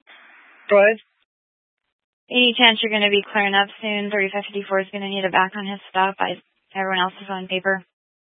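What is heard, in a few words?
Police Dispatch, Scanner audio; Police 1 Dispatch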